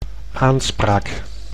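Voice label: "aanspraak", Dutch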